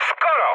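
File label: bomb failure, Czech, mood med; speech; War Thunder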